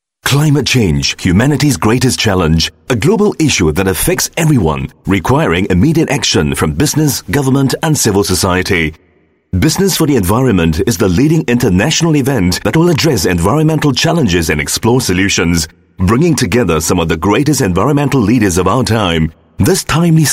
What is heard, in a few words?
Asian English, English Singaporean Male